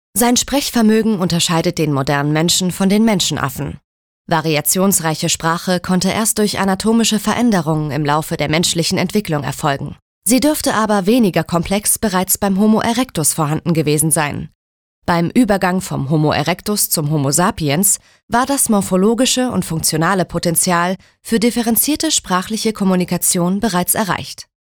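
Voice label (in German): Kommentar Sprache Neutral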